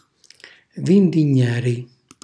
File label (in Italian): "vindignari", calabrese; vendemmiare; cucinacalabrese; glossario